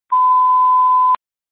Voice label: Fire Department Dispatch, scanner

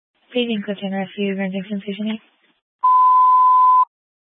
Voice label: Fire Department Dispatch; Grand Junction

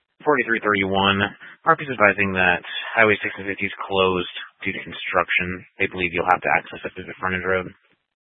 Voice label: Mesa County Sheriff Dispatch

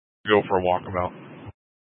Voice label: Tactical Incidents, scanner

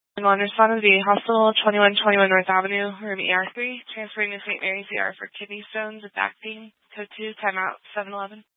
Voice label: Fire Dispatch

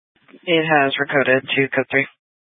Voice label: Fire Dispatch, scanner

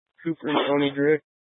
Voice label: Police Dispatch, scanner; Police 1 Dispatch

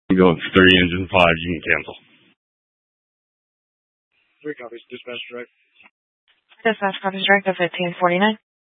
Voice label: Fire Dispatch

